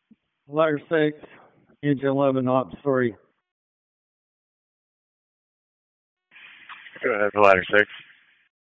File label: FIRE OPS, p25